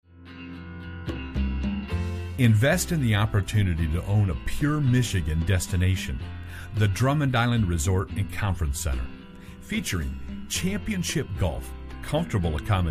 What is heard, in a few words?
Ad